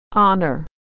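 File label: "honor", American English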